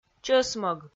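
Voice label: "чӧсмӧг", Komi, female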